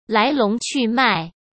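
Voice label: "来龙去脉", Mandarin Chinese; hsk; chinese-flashcards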